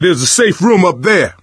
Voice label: misc directional, coach, survivor voice

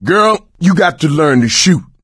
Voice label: friendly fire female, player survivor voice; source engine; left 4 dead 2

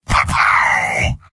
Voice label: soldier kaboom; video games; source engine; tf; mvm

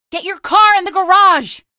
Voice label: get car in garage, female; Source Engine; video game; coast; barn

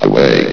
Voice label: "away", vox; Source Engine; bshift

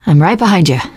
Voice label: im with you, teengirl; voice; source engine; left 4 dead 2; survivor

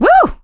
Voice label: positive noise; source engine; left 4 dead 2; survivor; producer

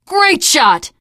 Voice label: nice shot, teen girl voice; survivor voice

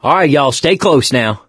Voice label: stay together, mechanic

